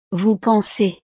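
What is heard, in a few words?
"vous pensez", French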